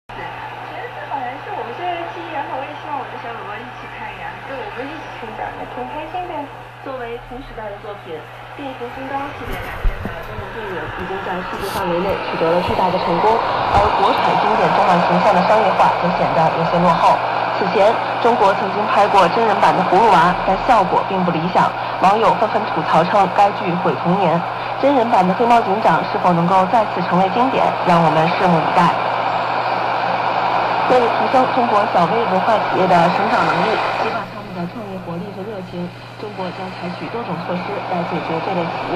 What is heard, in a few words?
Chinese radio